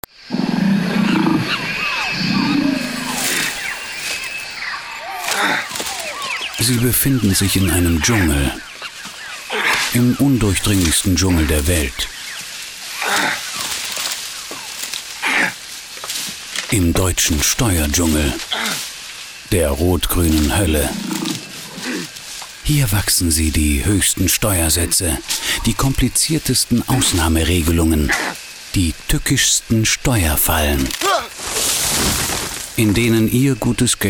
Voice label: radio; dschungel